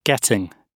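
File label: "getting", British English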